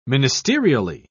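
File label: "ministerially", British English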